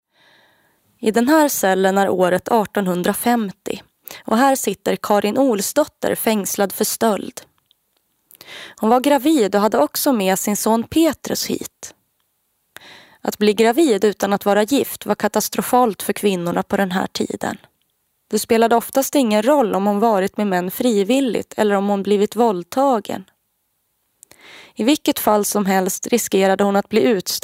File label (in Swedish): audioguider; HEDERSSAMHALLET; sve